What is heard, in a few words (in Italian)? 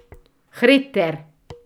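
"verdura", Italian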